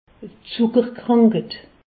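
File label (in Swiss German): "zuckerkranked", Alsatian; medecine; diabète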